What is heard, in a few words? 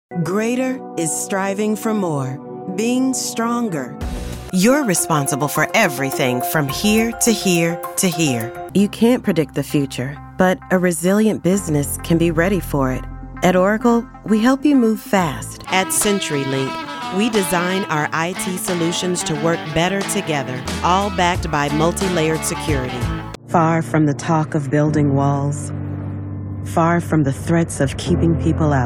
English American narration